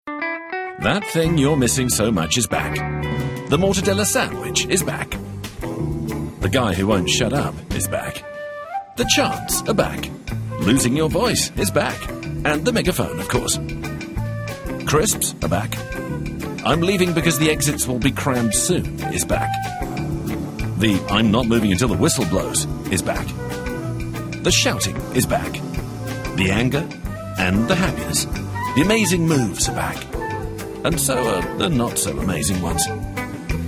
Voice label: male british english food comercial; ideasonora